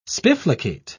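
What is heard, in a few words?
"spiflicate", British English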